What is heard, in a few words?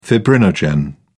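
"fibrinogen", British English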